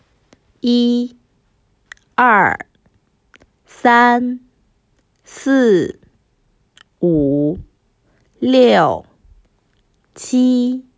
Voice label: one to ten Putonghua; SummerSchool2021